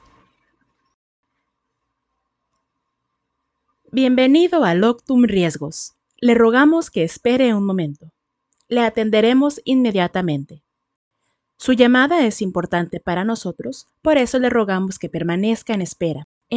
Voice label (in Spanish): Conmutador, locución; Loctum